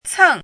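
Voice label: "cèng", Mandarin Chinese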